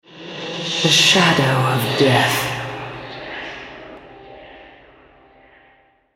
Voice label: Altar Capture, Female